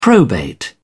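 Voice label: "probate", British English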